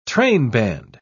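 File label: "trainband", British English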